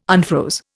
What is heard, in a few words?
"unfroze", Indian